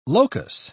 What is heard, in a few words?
"locus", pronounce